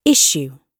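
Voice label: "issue", British English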